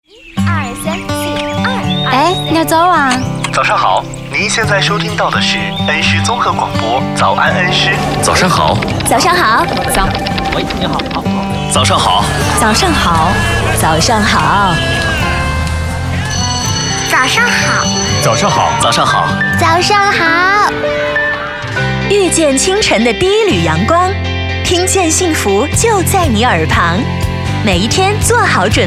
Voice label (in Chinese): 男,电台片花 片头; 早安恩施-总片头NEW